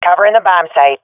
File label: guarding bombsite, swat fem; vo; agents; Source Model Packs; Counter Strike Model Pack; CS2